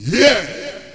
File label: rank up man; Nintendo